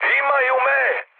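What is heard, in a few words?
Serbian aircraft destroyed mood high; War Thunder; speech srb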